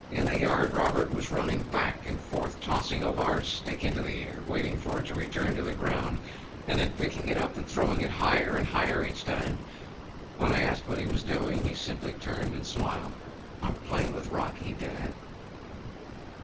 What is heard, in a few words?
male noisy